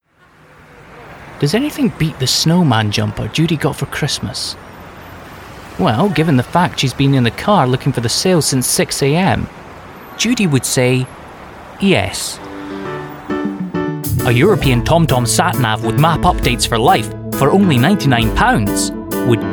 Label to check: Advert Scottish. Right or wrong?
Right